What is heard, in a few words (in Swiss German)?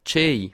"schueh", alsace